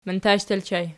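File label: "mantash" ergative plural, Archi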